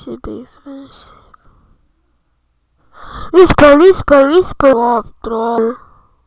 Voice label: whisper